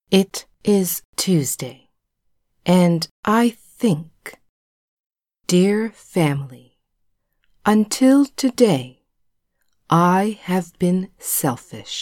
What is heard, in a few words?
Part 2 slow (female), englisch lernen; onlinekurs